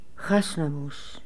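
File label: "Hasnamuss", pronunciations; Fourth Way; Beelzebub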